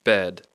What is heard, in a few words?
"bed", English